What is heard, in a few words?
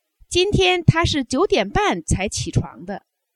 adverb, Chinese